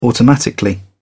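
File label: "automatically", English, British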